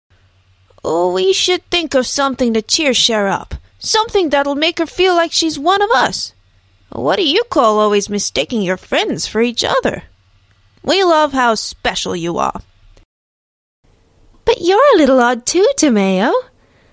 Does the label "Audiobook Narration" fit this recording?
No